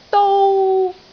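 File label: "dou1", Cantonese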